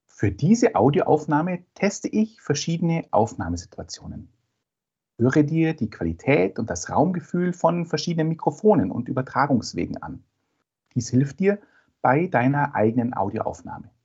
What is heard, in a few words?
podcast online, online VideoChat with echo cancellation & external microphone (lavalier microphone); hifi